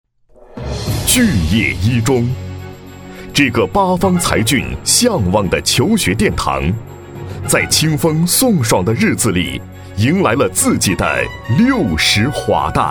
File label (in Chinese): 男国 学校宣传片; 巨野一中